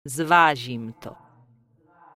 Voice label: Night Elf Female Sentinel Pissed; NPC; wowpreklad; czwow